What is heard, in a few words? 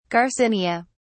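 "garcinia", British English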